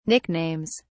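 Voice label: "nicknames", British English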